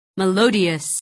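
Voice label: "melodious", English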